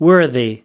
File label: "worthy", us